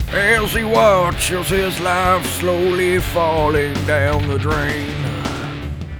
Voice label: Loss - As He Watches His Life Slowly Falling Down The Drain; blackjack